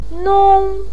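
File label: "nong", Mandarin Chinese first tone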